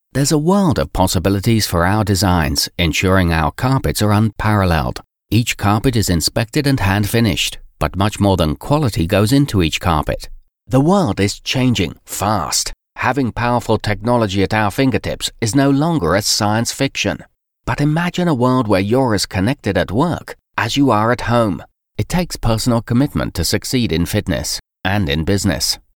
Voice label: english UK male

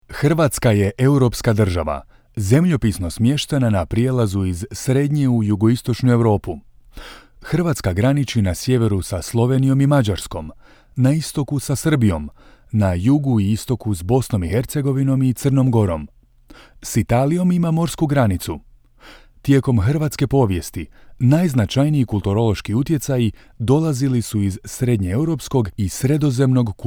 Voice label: croatian male

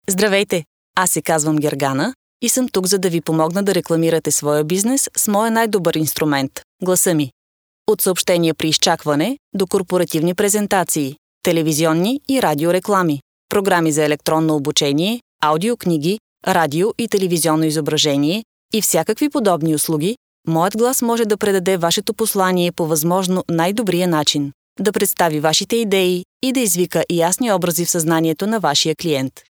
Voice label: bulgarian female

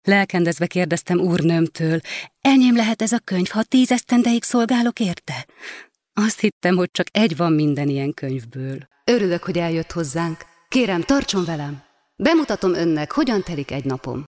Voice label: hungarian female

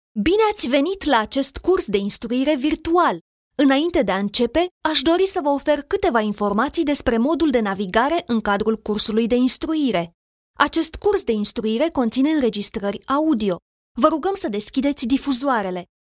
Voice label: romanian female

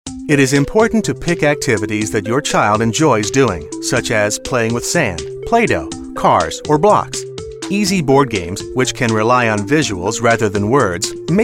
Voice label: english male

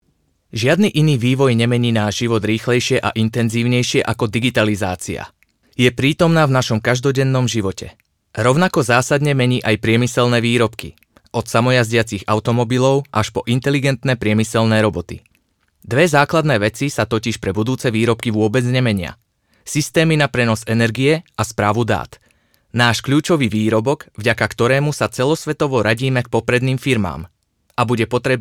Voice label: slovak male

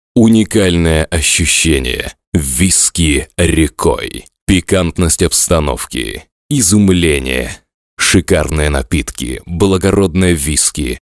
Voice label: russian male

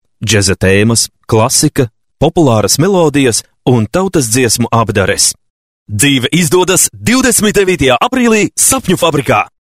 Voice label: latvian male

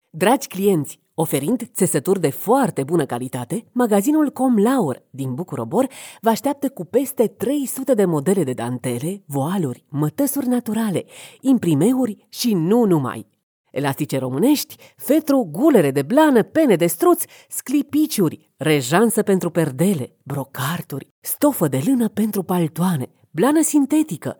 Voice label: romanian female

